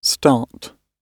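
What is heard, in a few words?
"start", British English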